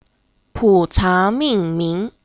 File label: puerh nomenclature m